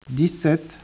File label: speech, French